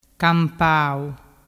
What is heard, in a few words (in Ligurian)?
"campau", dialettu